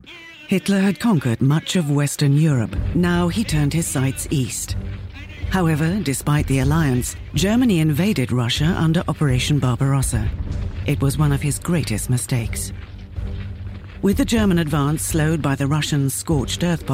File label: Narration